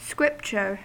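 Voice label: "scripture", US English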